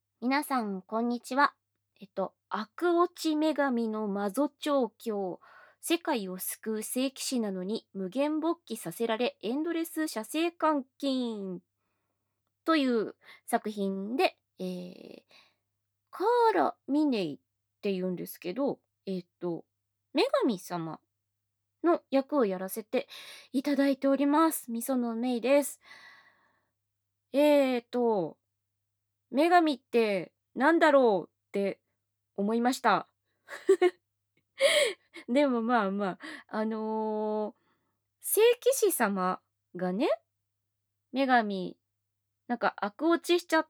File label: FreeTalk, ASMR